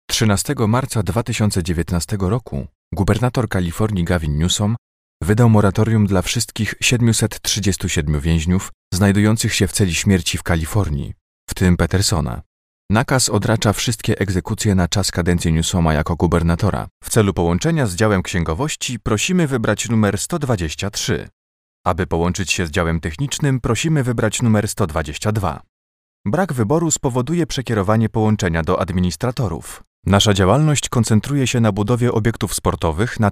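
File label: Polish male; lektoring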